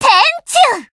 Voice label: Voice finish jp; suzette

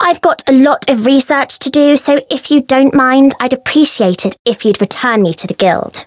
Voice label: Voice story

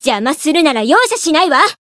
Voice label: voice jp; renri

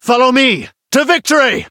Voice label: chant

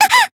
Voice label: damaged, jp

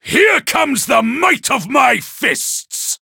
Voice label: variable chant

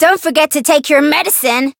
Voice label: Voice variable chant; pom

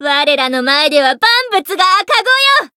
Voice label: Voice variable chant jp; tiramisu

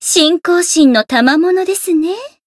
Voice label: growth, jp; rosetta

